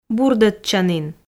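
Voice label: "бурдӧдчанін", Komi, female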